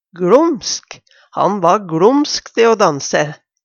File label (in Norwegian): glomsk, Numedalsmål; svært, veldig flink; Adverb